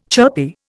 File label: "chirpy", Indian